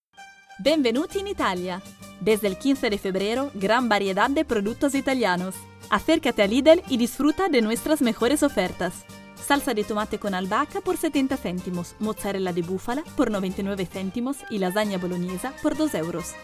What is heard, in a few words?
spanish promos